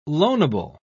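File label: "loanable", British English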